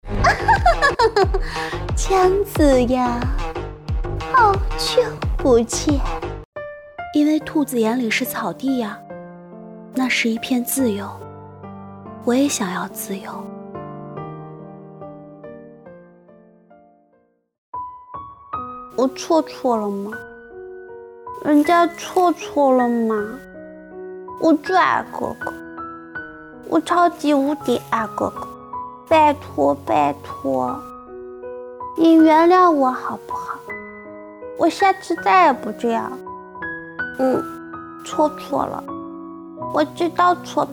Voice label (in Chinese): 御姐·少女·童音展示品